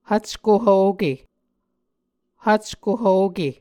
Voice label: "hvcko hvoke", Muscogee; Mvskoke Words with Voicing Consonants; A Dictionary of Creek/Muskogee; SIL International; Onvkv Ohhvyayices

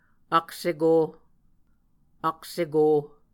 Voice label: "akseko", Muscogee; Mvskoke Words with Voicing Consonants; A Dictionary of Creek/Muskogee; SIL International

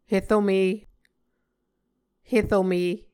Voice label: "herome", Muscogee

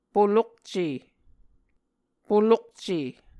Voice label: "polokce", Muscogee

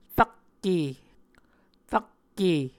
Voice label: "rakke", Muscogee

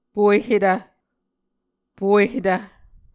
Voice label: "puehetv", Muscogee

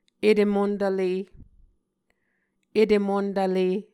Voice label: "etemontvle", Muscogee

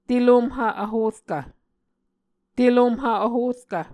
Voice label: "telomhv vhorkv", Muscogee

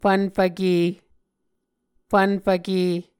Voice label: "fvnfvke", Muscogee

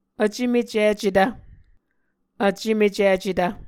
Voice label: "vcemecicetv", Muscogee

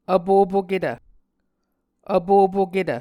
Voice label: "vpopoketv", muscogee

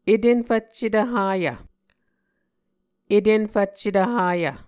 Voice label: "etenfvccetv hayv", Muscogee; Mvskoke Words with Repeated Consonants; Martin, Jack B., and Margaret McKane Mauldin. 2023. A Dictionary of Creek/Muskogee

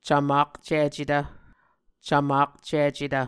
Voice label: "cvmakcicetv", Muscogee; Mvskoke Words with Voicing Consonants; A Dictionary of Creek/Muskogee; SIL International; Onvkv Ohhvyayices

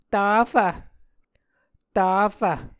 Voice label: "tafv", Muscogee

